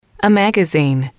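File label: a Magazine, english, female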